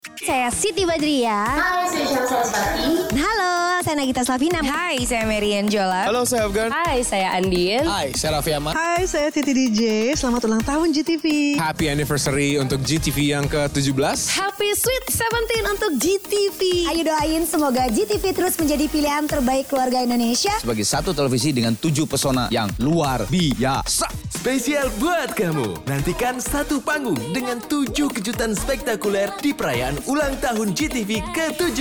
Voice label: SPOT RADIO